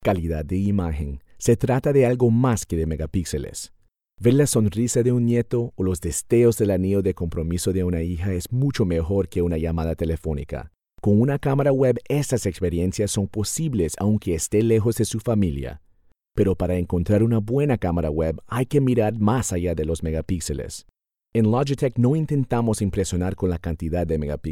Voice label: Spanish Commercial